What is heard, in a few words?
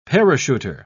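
"parachuter", British English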